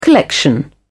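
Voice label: "collection", British English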